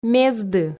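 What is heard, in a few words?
"мезды", Komi, female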